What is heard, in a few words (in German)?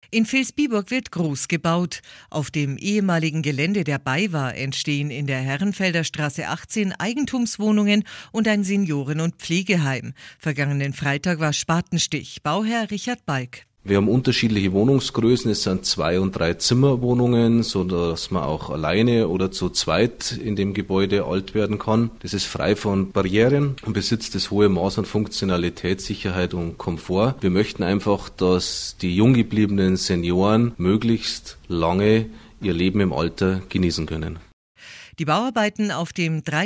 radio; radio trausnitz spatenstich